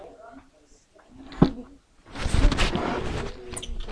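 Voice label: "jig", spoken word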